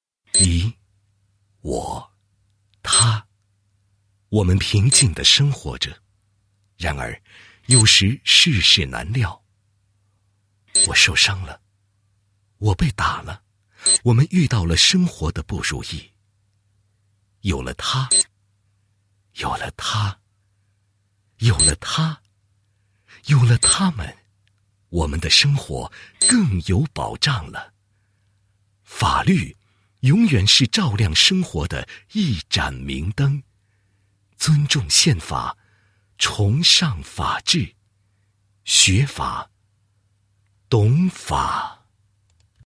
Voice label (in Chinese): 男 公益 尊重宪法